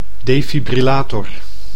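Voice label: "defibrillator", Dutch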